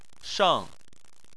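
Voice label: "sheng" tone 4, Chinese; Chinese Surnames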